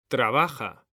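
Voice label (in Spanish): "trabaja", Spanish, male; mekanta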